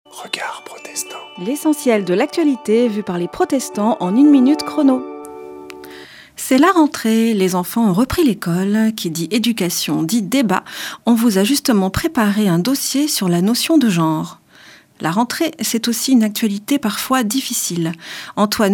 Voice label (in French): Spot radio; Regards protestants